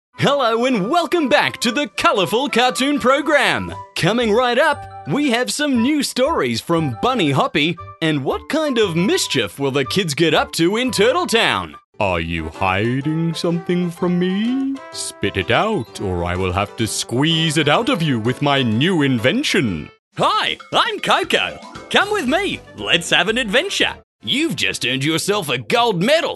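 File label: Australian, toys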